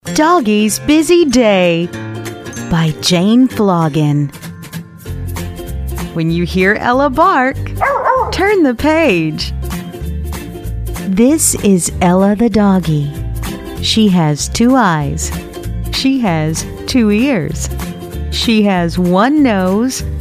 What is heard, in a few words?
audio with music; Doggy Busy Day